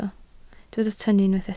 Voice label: "tynnu", Welsh